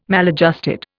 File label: "maladjusted", Indian